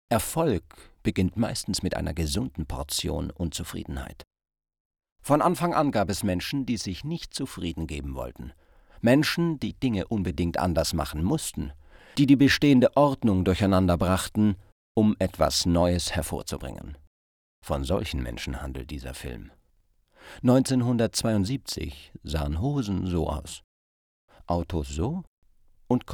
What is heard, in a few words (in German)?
imagefilm